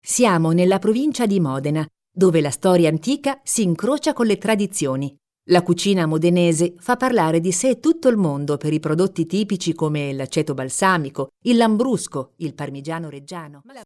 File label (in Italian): Audioguida ITA